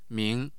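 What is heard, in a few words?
"ming2", Mandarin Chinese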